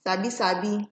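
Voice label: "sabisabi", Nigerian Pidgin, female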